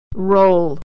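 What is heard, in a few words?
"roll", American English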